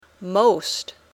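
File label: "most", English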